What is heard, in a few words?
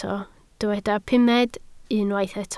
"pumed", Welsh